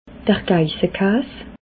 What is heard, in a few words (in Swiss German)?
"geisse kaas", alsace